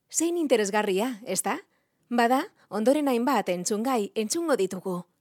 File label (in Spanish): euskera locutora